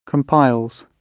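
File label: "compiles", British English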